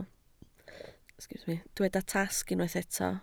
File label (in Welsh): "tasg", Welsh